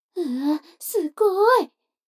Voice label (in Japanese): 女の子台詞